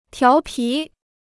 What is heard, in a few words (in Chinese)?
"调皮", Chinese